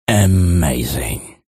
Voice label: shoutout en; jewels